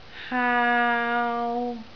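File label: "haau3", Cantonese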